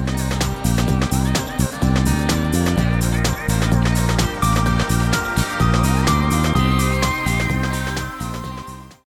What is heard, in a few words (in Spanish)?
perifoneo VERDURAS COCIDAS